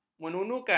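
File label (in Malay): "menunukan", Malay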